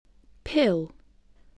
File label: "pill", voice, English